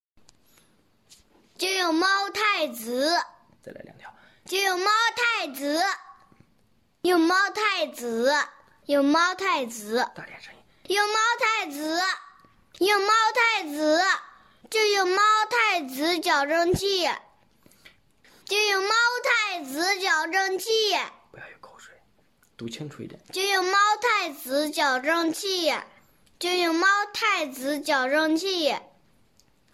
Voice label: 男童; 真童配音

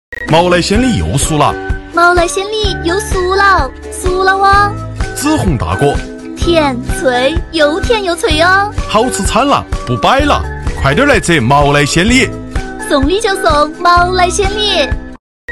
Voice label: 重庆方言 女 大音 方言 果园宣传; 方言配音

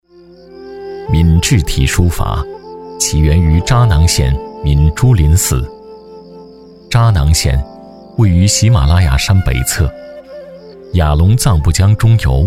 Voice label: 男 国 大音 纪录片讲述感历史人文; 非遗传承; 舌尖

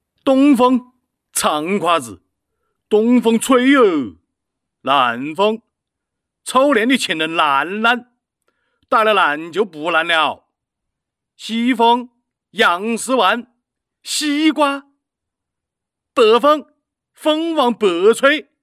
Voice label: 武汉方言 男 武汉方言; 方言配音; 棋牌

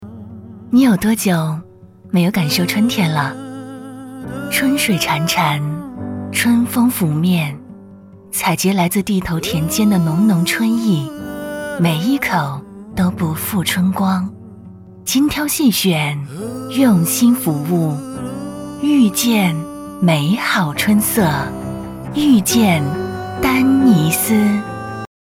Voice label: 女 tvc 温暖; 丹尼斯

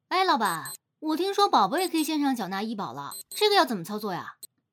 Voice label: 陕西方言 女 陕西话 宝妈; 方言配音

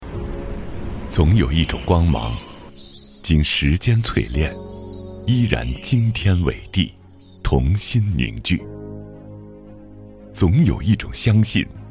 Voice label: 男, 银行宣传样音; 中国银行

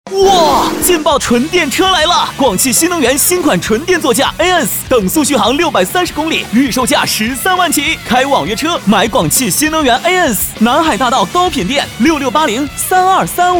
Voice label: 男国 广告 车; 广汽新能源; 销售类tvc

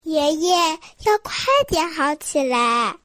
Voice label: 大音-女真童; 真童配音

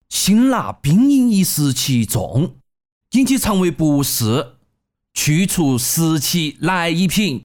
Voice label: 四川方言 男; 方言配音; 四川话; 太极

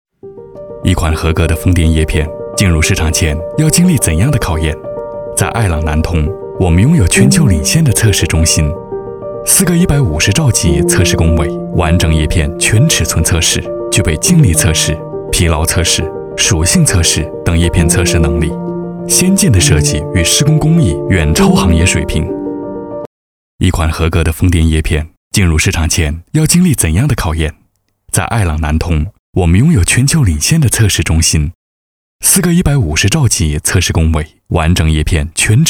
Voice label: 男 大音 科技; 艾郎科技

